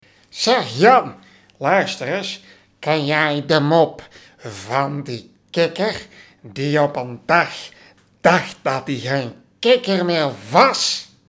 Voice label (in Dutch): grappig verdraaide stem